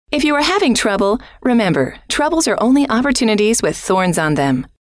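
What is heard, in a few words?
if you are having trouble, female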